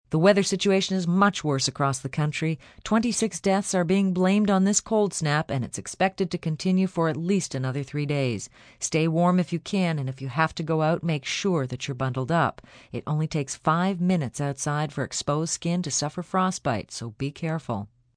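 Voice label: Cold Snap F, Broadcast, weather, female weather report, extreme cold advisory with deaths; extreme cold advisory with deaths reported, news (human voice speaking)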